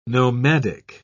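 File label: "nomadic", British English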